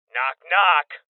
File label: Voices Door Kick Male Young; rebel cops 2019 gamerip